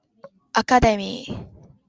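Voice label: "academy", Japanese, female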